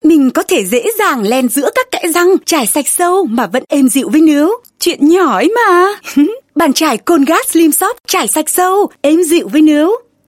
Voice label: North Female Vietnamese

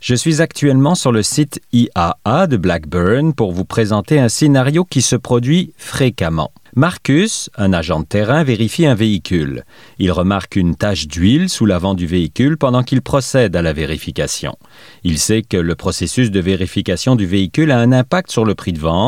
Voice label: French Canadian new employee visit

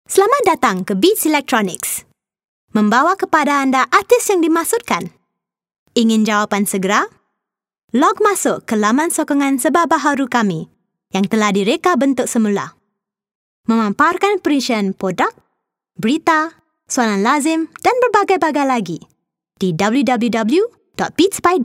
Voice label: Malay Female IVR

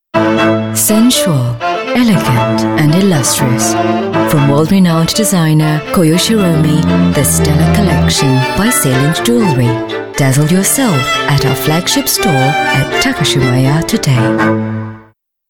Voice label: English Singaporean Female

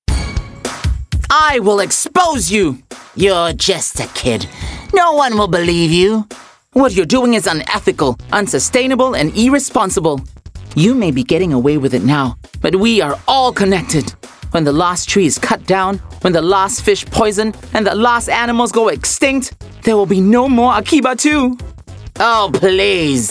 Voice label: Asian English character voices climate change

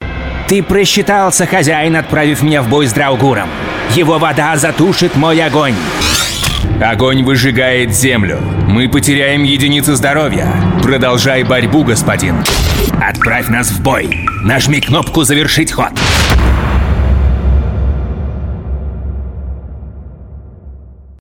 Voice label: Russian Male Game Voice

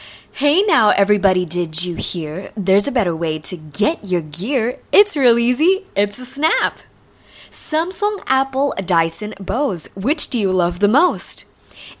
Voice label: Asian English snappy deals

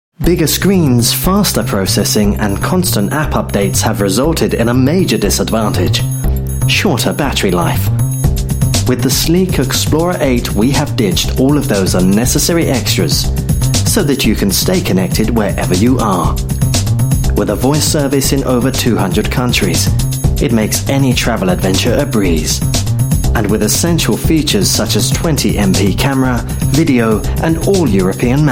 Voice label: UK English mobile phone

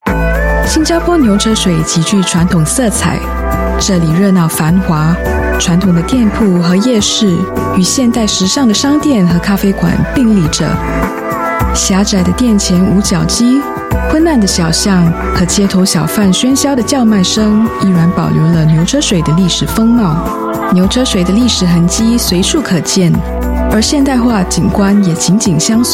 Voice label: Mandarin China Narration, Female

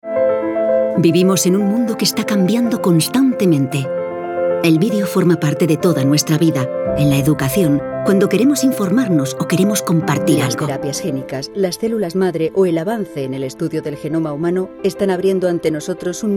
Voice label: European Spanish Voice

